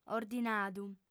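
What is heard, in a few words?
"ordinadu", Sardinian, female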